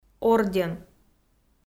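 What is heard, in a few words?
"орден", Komi-Zyrian, female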